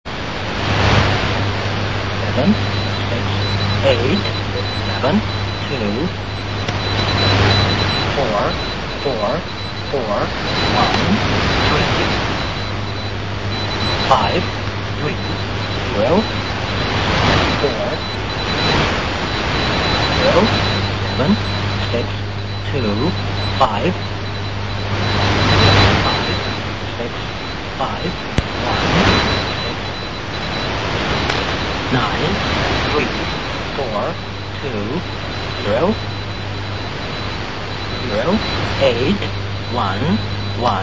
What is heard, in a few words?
Russian Man; E7